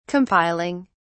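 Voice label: "compiling", British English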